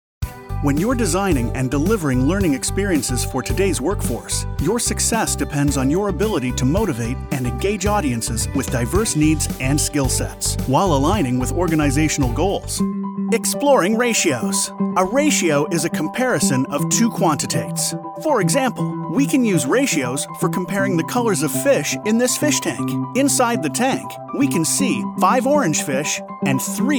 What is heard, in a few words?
Elearning